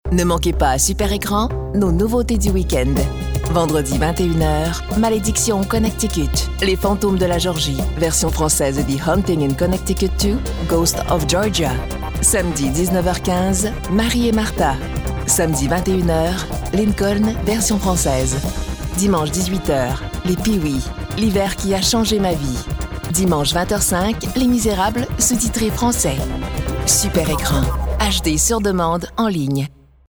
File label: french canadian movie trailers